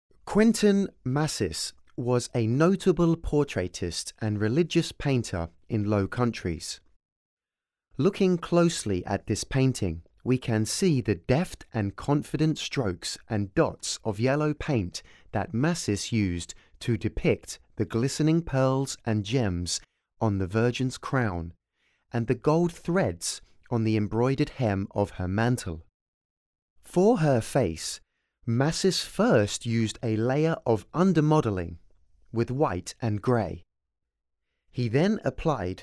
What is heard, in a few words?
English, audio guide